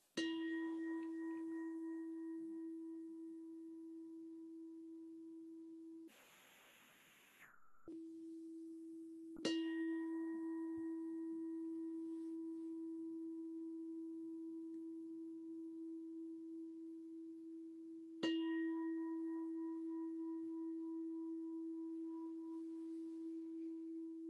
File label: Meditation